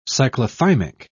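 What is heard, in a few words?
"cyclothymic", British English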